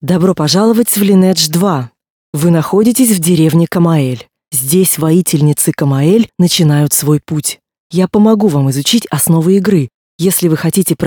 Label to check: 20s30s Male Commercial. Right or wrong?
Wrong